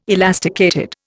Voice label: "elasticated", Indian